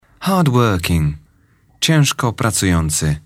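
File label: "hard working", English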